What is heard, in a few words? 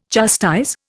"chastise", Indian